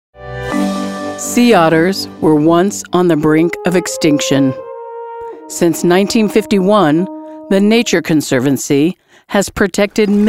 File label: NARRATION